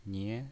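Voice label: "nie", pinyin voice, Mandarin Chinese, second tone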